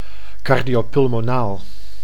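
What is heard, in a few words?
"cardiopulmonaal", Dutch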